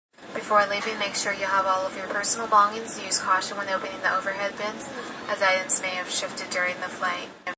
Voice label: Stewardess After Landing, Stewardess makes announcements after airliner lands.; Airplanes; Vehicles